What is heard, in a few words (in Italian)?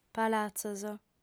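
"palatzos", lingua sarda, fem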